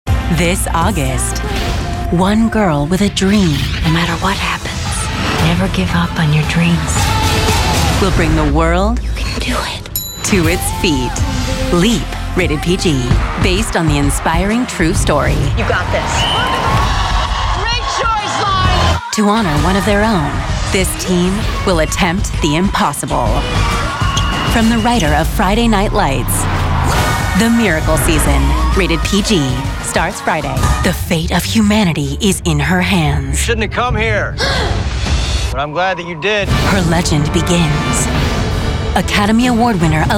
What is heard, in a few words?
trailer female